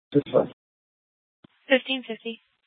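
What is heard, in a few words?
Mesa Police Department Superstition Dispatch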